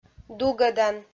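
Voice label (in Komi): "дугӧдан", Komi, female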